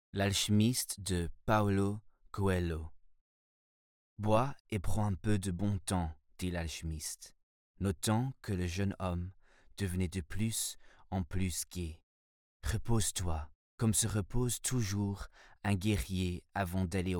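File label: French Audiobook; voice demos; GoLocalise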